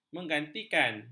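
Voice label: "menggantikan", Malay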